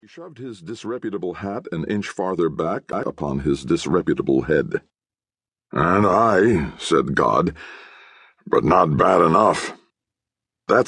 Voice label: Audiobook sample; the screaming mimi